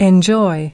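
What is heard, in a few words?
"enjoy", English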